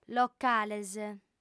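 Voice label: "locales", female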